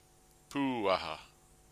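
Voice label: "puaha", Māori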